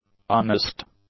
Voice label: "unasked", English; adjectives